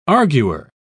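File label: "arguer", British English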